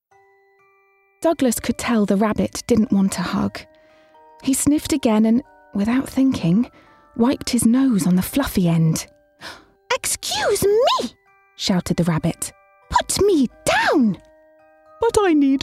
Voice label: AUDIOBOOK; Hugless Douglas